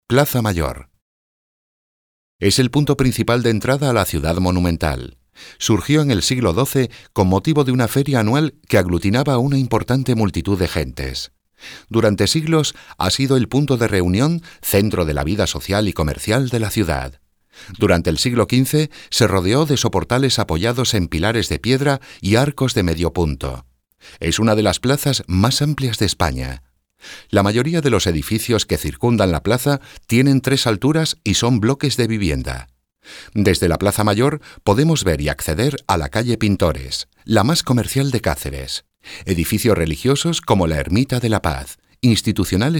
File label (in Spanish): locución; Plaza Mayor